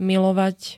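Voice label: "milovať", Slovak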